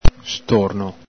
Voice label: "storno", Veneto

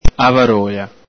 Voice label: "avarola", Veneto

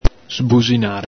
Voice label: "sbuxinare", Veneto

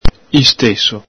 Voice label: "istéso", Venetian

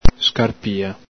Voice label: "scarpia", Veneto

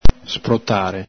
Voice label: "sprotare", Veneto

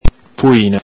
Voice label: "puina", Venetian

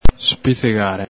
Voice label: "spizhigare", Veneto

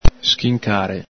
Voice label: "schincare", Veneto

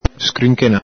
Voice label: "scrinchena", Veneto

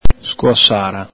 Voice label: "scoasara", Veneto

